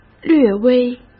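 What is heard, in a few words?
"略微", Mandarin Chinese; hsk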